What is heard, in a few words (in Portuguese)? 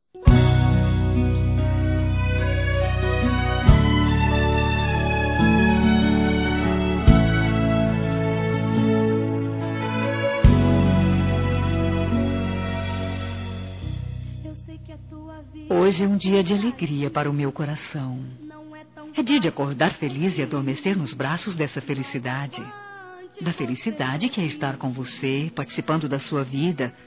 ROMANTICA EVANG. F; Aniversários; ANIVERSARIO romantico; fem